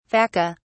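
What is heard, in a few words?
"vaca", British English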